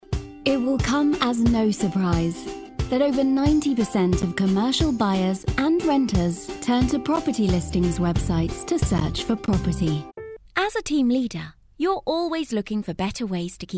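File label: English female; lektoring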